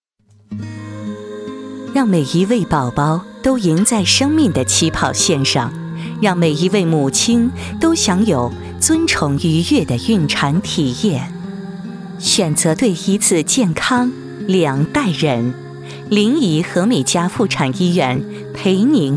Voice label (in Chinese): 专题 医院 亲切